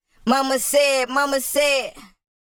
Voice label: Processed Phrase, Mama Said, 150 BPM; Vocal Phrases; Cymatics; Euphoria Vocal Sample Pack